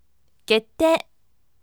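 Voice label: voice, firm; kettei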